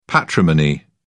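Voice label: "patrimony", British English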